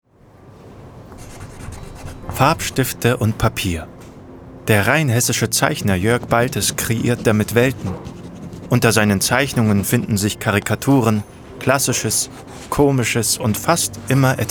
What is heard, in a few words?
Documentary Handwerkskunst; Doku - Handwerkskunst; German